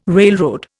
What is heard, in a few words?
"railroad", Indian